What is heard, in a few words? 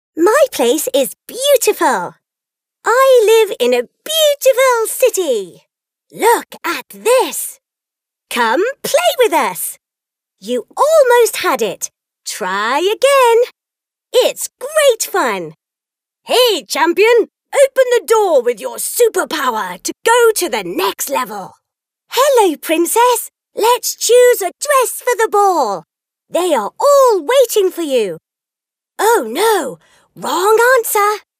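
British English female children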